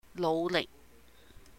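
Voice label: "nóuh lihk", try hard, Cantonese; 努力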